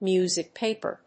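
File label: "music paper", English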